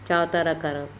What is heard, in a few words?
"caotarakarap", andamanese